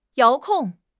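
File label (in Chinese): "遥控", Chinese; HSK6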